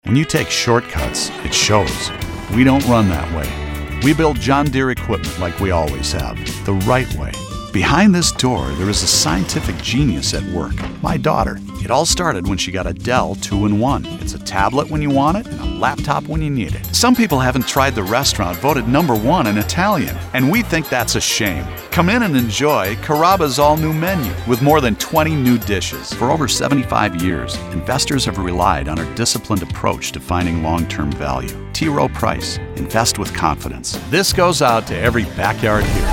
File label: Commercial